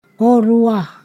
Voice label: "second", Ponosakan